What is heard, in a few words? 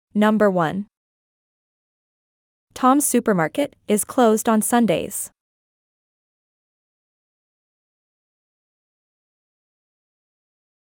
USA; toms supermarket